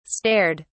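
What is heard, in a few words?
"stared", British English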